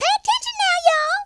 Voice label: watch this, Southern Belle; speech